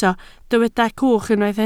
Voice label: "cwch", Welsh